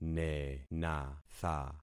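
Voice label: "nenatha", concatenated syllables; Affixing experiment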